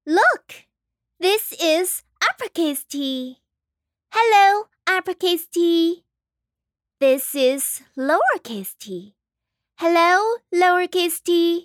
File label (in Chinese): 英文女播